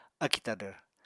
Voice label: "akì tadvr", Apatani